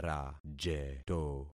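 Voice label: "rajeto", concatenated syllables; Affixing experiment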